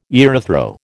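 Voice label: "erythro", American English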